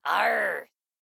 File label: Save A Pirate; Tenframe Pirates